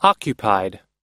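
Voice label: "occupied", American English; occupied /ɑ́:kjəpaid; 占領された、ふさがった、(…に)従事して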